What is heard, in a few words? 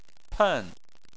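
"pen" fourth tone, Chinese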